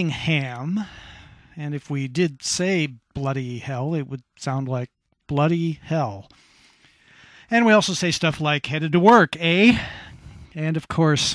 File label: northern us accent